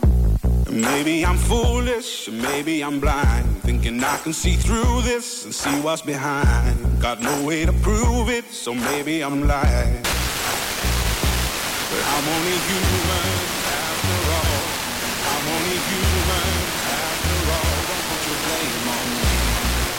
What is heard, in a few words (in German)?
UKW 106Mhz FM; Baden FM; X one; AD370